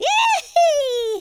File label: "brilliant", speech, Cowgirl